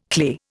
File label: "clay", Indian